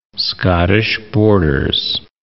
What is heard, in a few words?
"Scottish Borders", English